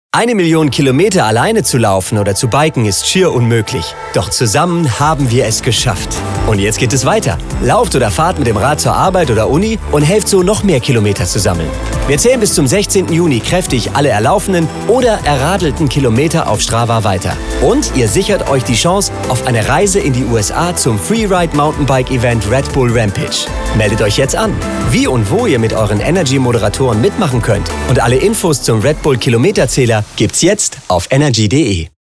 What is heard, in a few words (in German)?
werbung; red bull off